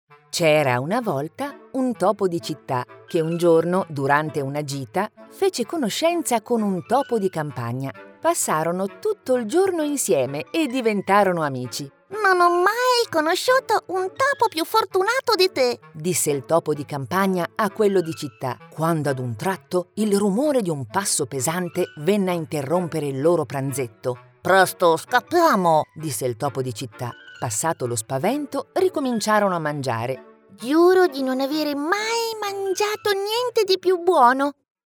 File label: italian animation